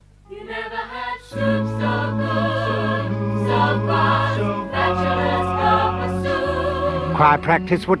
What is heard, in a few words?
Radio Advert, Cup A Soup; Radio Hallam